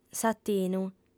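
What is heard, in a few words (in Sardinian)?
"s'atinu", female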